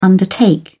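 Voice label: "undertake", British English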